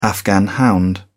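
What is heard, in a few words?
"afghan hound", English, uk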